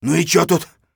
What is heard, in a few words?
scav mutter, voice; Escape from Tarkov; scav1